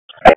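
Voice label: EMS Dispatch; wake; p25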